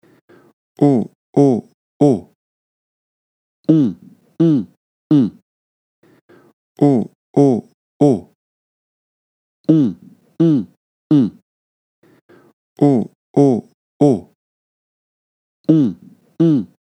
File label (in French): nasale